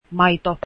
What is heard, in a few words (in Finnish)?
finnish milk, Finnish